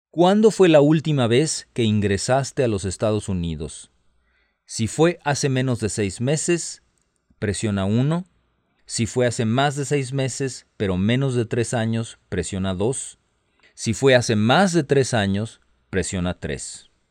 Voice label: Spanish, hotline